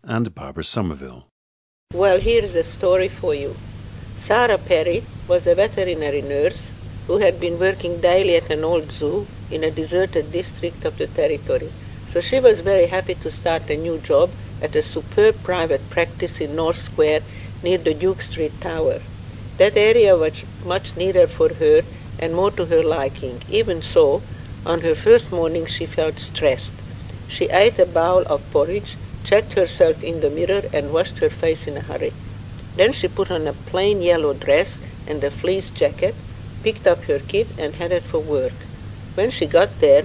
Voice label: hungary, dialects